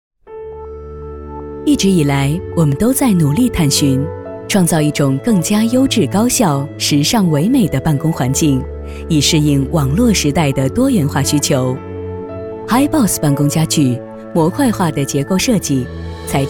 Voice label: 女 专题 产品 办公家具 稳重

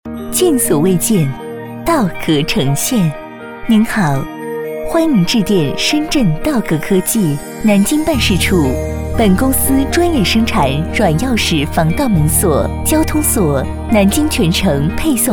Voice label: 其他 彩铃 科技公司 温柔; 深圳科技公司

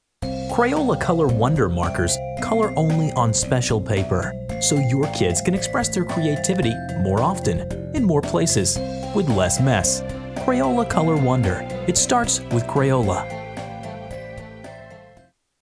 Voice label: 外籍男英 外语 美式英语 广告样

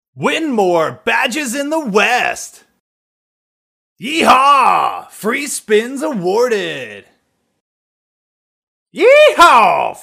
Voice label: 外籍男英 外语 美式英语 牛仔

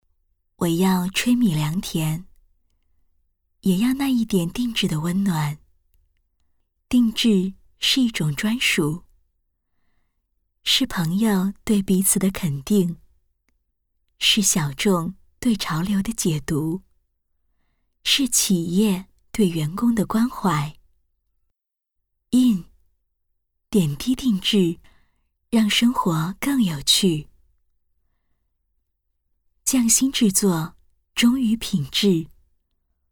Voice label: 广告 品牌形象 温柔; IN

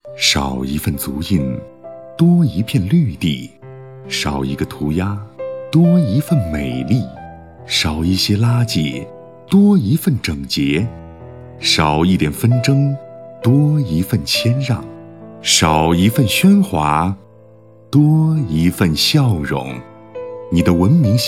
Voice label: 男国 广告 公益 温情; 文明公德

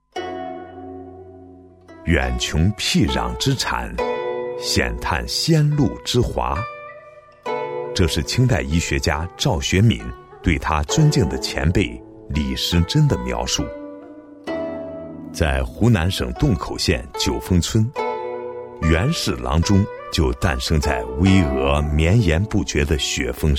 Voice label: 纪录片 人文历史, 男国

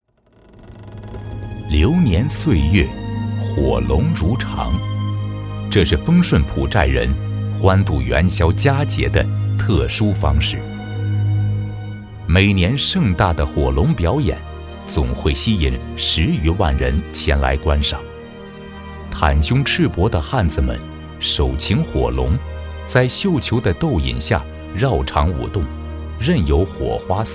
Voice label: 男国 专题 政府 讲述; 元宵节

